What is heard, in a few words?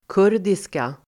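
"kurdiska", Swedish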